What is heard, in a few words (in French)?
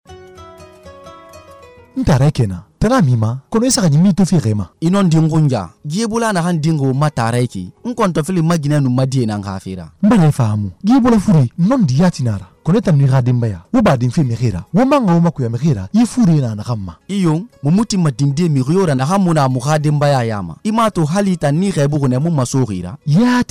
SPOT EBOLA 2 HOMMES SOUSOU